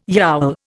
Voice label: "yowl", Indian

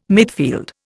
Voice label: "midfield", Indian

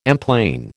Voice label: "emplane", American

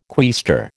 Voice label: "quaestor", American

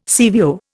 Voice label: "CVO", Indian

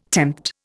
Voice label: "tempt", Indian

